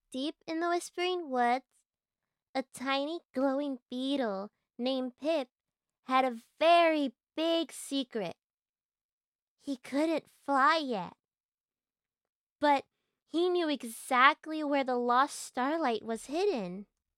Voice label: Young Girl Voice